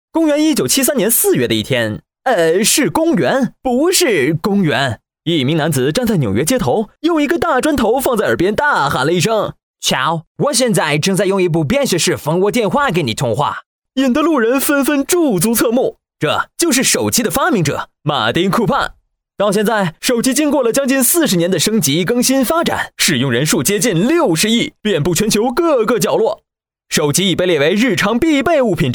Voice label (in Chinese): 宣传片; 病毒; 手机发展史